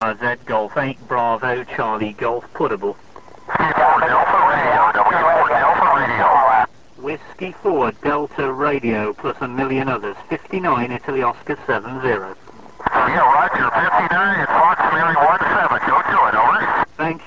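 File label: amateur radio contact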